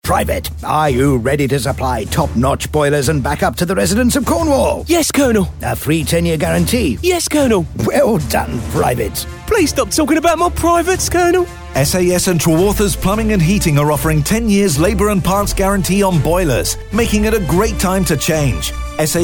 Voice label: radio advert